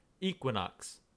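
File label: "equinox", US English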